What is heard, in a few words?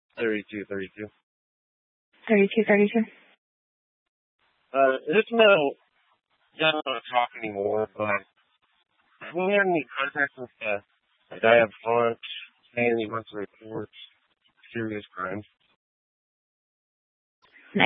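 Police Dispatch, Scanner audio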